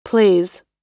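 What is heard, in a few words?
"plays", American English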